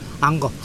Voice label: "anchor", Mokilese

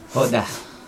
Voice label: "hoda", Chug, dao

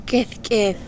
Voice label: "red ant", Yapese

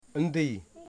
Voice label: "blood", Wamut

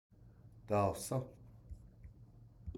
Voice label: eat it 2nd person plural, Navajo

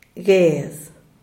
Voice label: "cigaro", "guez"

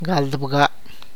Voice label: "twenty nine", tlacochahuaya

